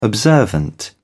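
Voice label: "observant", British English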